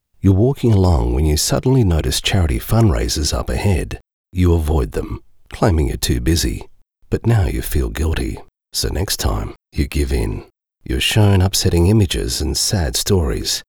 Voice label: natural